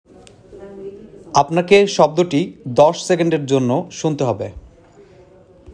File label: male sound given